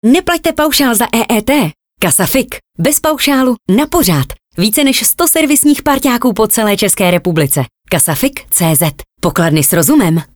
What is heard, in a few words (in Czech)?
spot zensky; kasafik